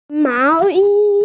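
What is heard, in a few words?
"mao2yi1", Mandarin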